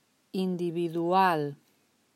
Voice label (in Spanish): "individual", Spanish